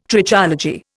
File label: "Trichology", Indian